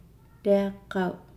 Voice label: "wood", Nukuoro